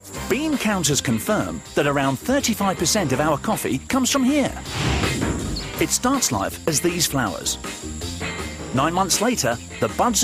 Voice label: Narration

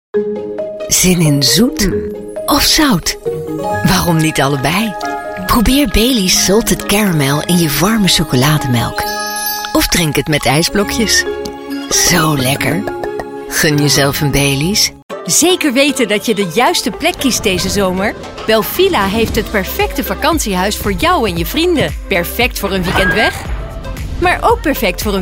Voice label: Dutch Commercial

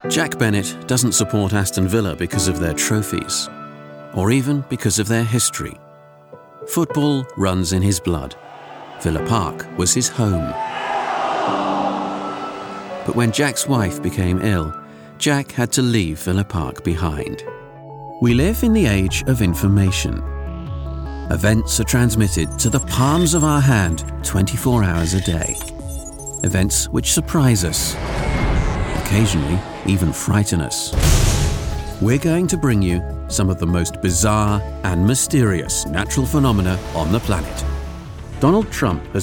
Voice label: Narration

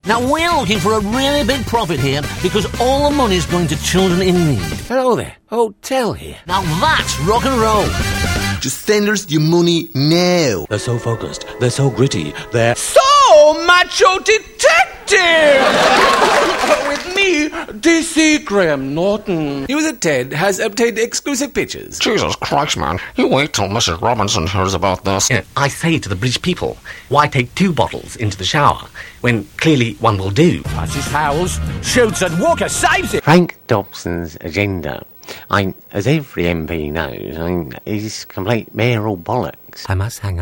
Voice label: Impressions

